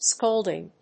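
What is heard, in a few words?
"scolding", English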